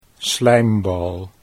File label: "slijmbal", Dutch